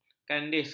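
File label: "kandis", Malay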